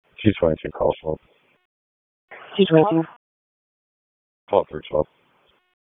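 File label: Police Northeast District Dispatch; Raleigh; wake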